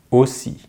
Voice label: "aussi", French; easypronunciation